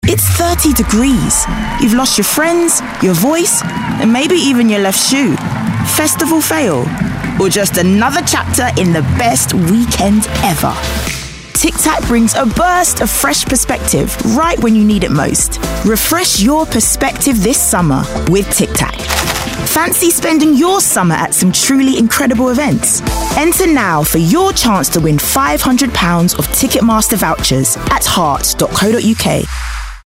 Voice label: commercial; Tic Tac